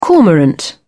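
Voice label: "cormorant", British English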